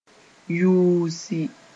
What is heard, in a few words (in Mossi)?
"yuusi", moore